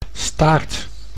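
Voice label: "staart", Dutch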